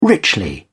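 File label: "richly", British English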